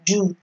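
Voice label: "jew", Nigerian Pidgin, female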